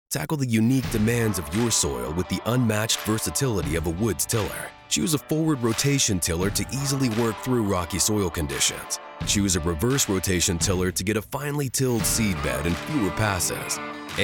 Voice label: Radio